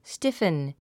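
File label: "stiffen", English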